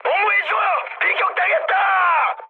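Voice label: Korean aircraft damaged mood high; speech; War Thunder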